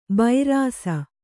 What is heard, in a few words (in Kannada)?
"ಬೈರಾಸ", Kannada